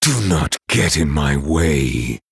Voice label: Male Night Elf Start